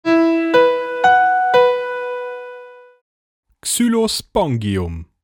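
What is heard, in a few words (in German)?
"Xylospongium", German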